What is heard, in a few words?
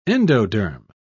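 "endoderm", British English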